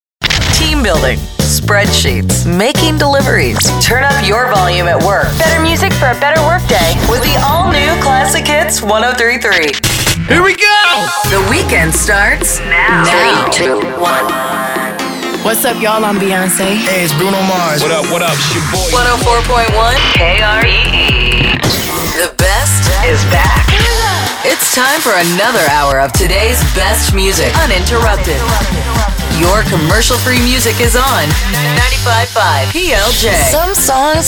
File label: radio imaging women general